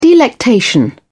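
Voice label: "delectation", British English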